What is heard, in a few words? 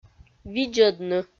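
"видзӧдны", Komi, female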